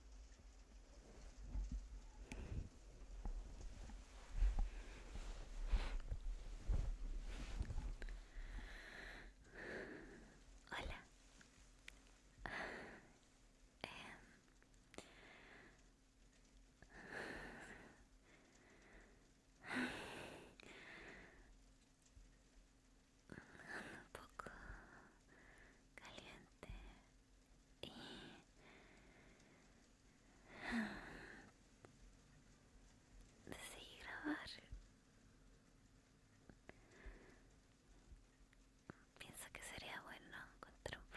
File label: Shy girl, whispering, rainy night, wet; F4M; Noche mojada; está lloviendo